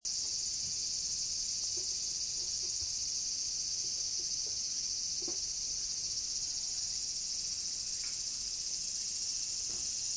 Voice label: natural voice

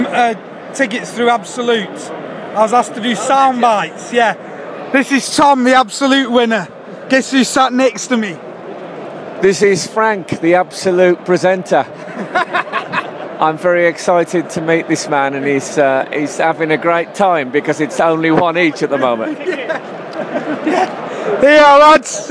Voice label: excited half time

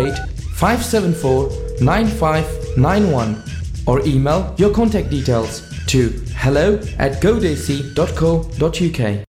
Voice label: radio course advert; go desi

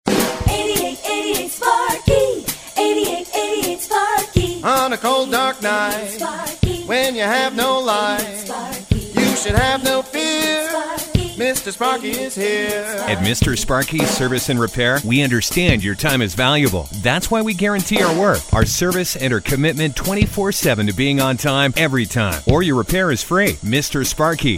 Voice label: home electricity radio commercial; mister sparky

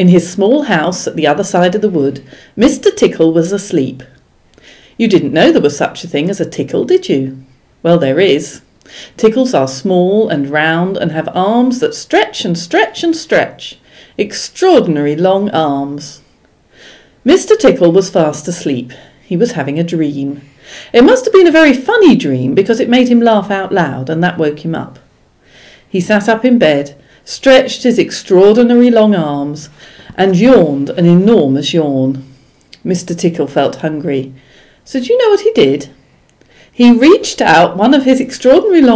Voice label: female Guildford Surrey England accent; mr tickle

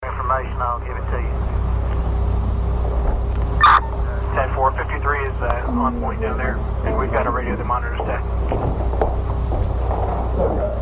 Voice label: police scanner feed; possible virginia tech suspect reported

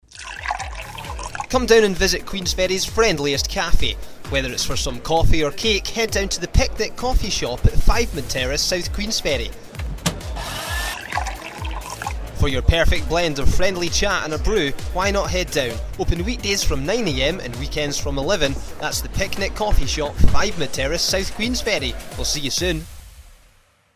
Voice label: advert

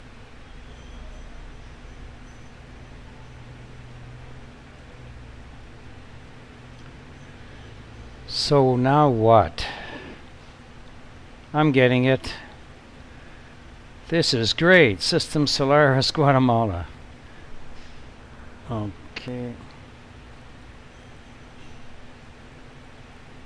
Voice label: voice over; for my first powtoon video

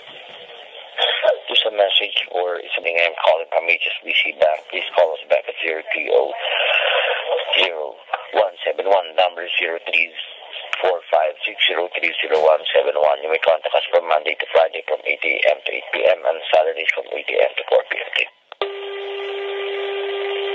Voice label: answer machine message; HSBC

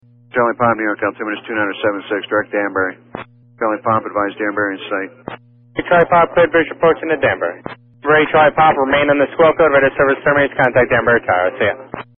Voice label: NY approach on 126.400MHz; n438cp

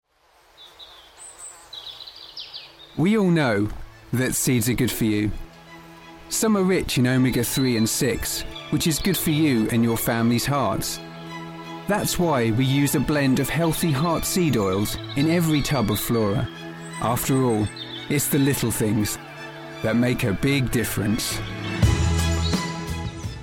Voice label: advert

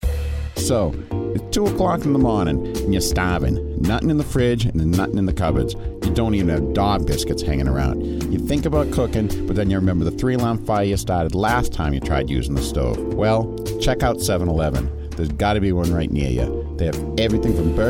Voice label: boston accent commercial

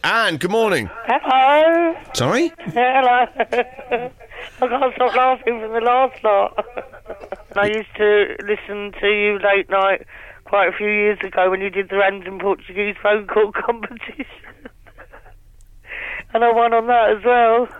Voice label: croaky voiced; this week s best call

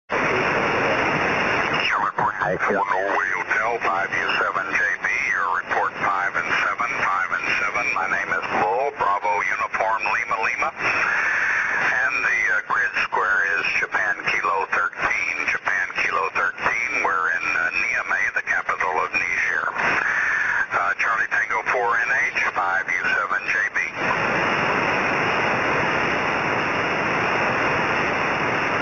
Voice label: 6m ssb

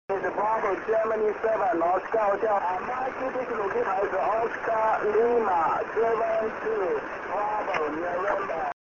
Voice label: 6m ssb

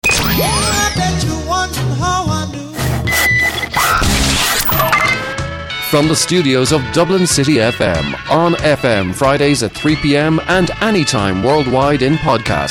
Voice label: promo radio jingle

